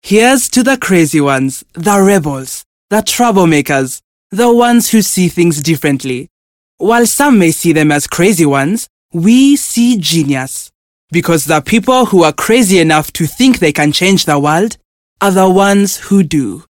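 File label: English African, General; GoLocalise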